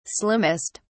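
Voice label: "slimmest", British English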